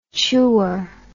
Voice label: "chewer", English

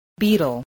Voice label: "beetle", English

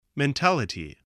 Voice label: "mentality", voice, spelling

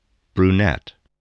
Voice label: "brunette", spelling

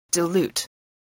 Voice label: "dilute", english spelling

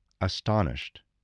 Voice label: "astonished", english

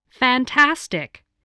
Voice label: "fantastic", voice

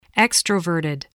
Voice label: "extroverted", voice

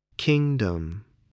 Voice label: "kingdom", English

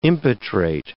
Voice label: "impetrate", English

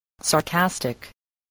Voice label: "sarcastic", spelling

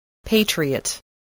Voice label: "patriot", English, voice

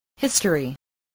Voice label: "history", English, spelling

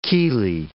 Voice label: "chelae", English

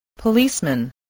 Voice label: "policeman", English

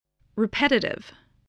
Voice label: "repetitive", English

